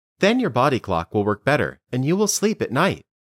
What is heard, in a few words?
Dictation